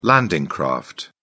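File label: "landing craft", British English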